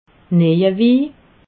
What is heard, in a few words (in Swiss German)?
"nejerwi", alsace